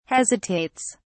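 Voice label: "hesitates", British English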